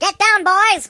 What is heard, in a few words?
"Take cover", British